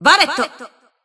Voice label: System Voice; BBCP; Bullet